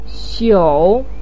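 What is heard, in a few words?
"xiu3" Chinese syllable, pinyin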